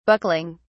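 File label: "buckling", English, British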